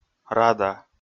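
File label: "рада", Komi, male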